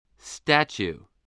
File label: "statue", voice